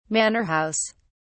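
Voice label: "manor house", English, British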